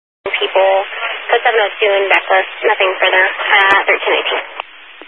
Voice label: Highway Patrol, Phoenix Metro East Area, scanner